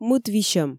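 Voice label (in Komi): "мыт висьӧм", Komi, female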